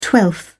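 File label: "twelfth", English, British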